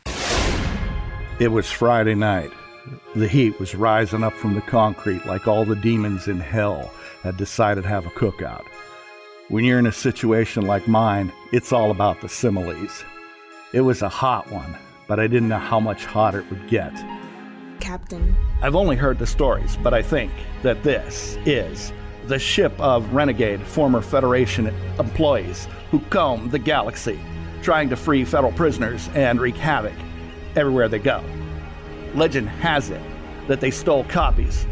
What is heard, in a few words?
impressions